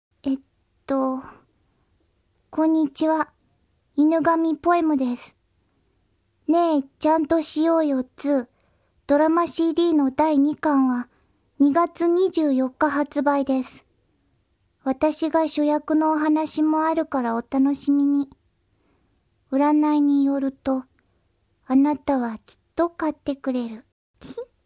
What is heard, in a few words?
drama poem